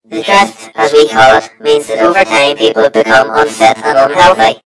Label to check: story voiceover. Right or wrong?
Right